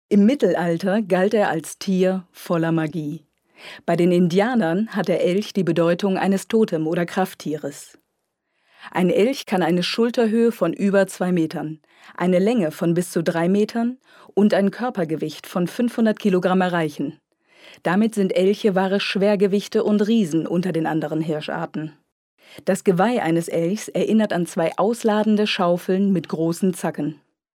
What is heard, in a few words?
female german voice over narrative